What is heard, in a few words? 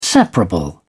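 "separable", British English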